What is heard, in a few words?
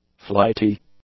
"flighty", english; adjectives